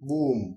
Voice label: explosion desc, bg; naturalforces; tuxpaint-stamps